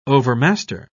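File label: "overmaster", British English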